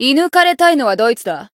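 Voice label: Voice variable chant, Japanese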